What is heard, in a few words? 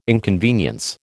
"inconvenience", American